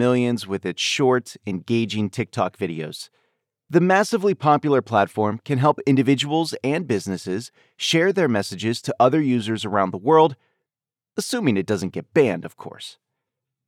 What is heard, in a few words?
voiceover